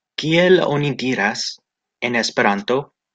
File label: pronunciation eo kiel oni diras en esperanto, Esperanto